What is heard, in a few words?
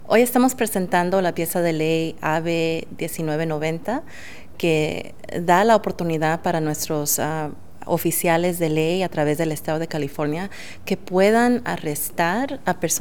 SPANISH